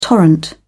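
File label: "torrent", English, British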